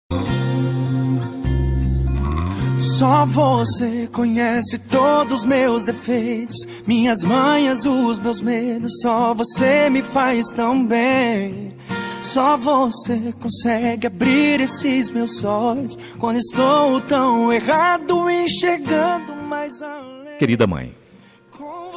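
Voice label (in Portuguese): telemensagem, male; DIA DAS MAES SING MASC; telemensagem dia das maes filha e filho enviam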